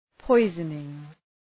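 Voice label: "poisoning", English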